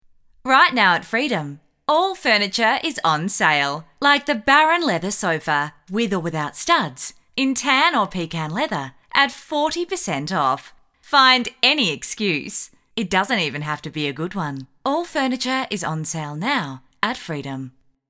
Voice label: Hard Sell

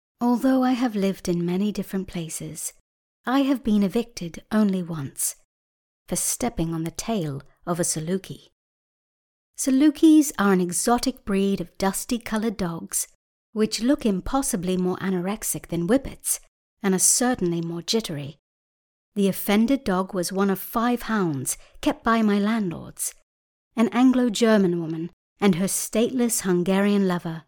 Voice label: British Narration

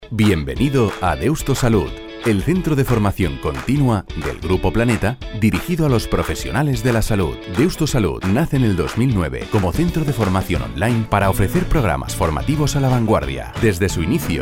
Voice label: PRESENTATION

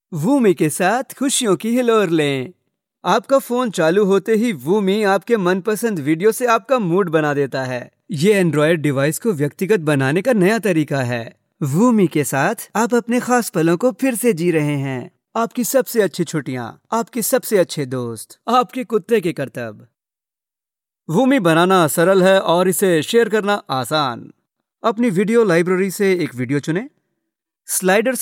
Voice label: Explainer Hindi

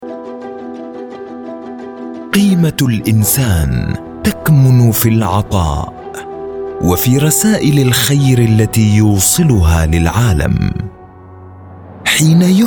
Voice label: inspirational Standard Arabic with music

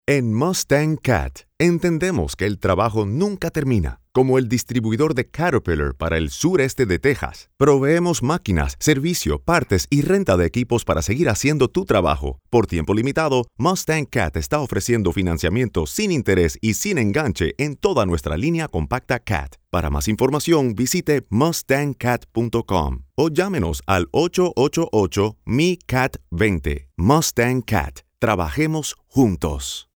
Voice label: Spanish; MUSTANG Caterpillar

